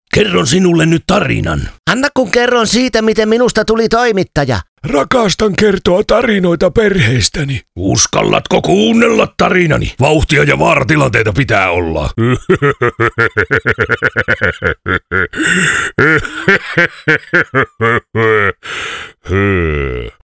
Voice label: finnish video games